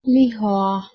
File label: "lihwa", Proto-Germanic